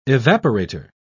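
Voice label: "evaporator", British English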